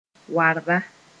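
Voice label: "warba", Mooré; Mossi dance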